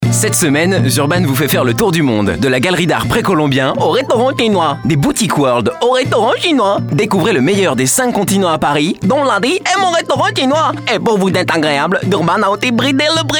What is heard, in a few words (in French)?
accent chinois; Zurban